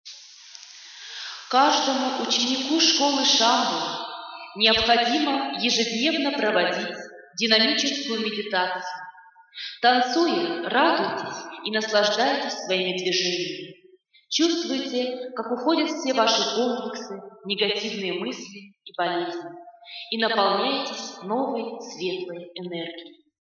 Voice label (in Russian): tekst dinamicheskaya meditacziya